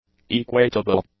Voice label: "equatable", English; adjectives